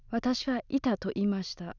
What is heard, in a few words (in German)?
"ita" japanese accent